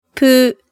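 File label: "pu", Japanese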